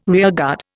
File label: "rearguard", Indian